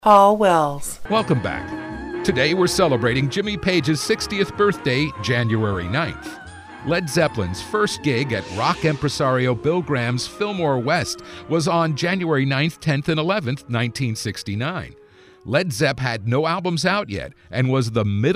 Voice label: male voice; voice talent; television and radio commercials, industrials, cd-roms, cartoon